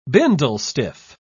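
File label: "bindlestiff", English, uk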